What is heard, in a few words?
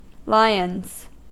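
"lions", US English; pronunciations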